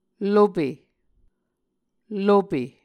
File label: "lope", Muscogee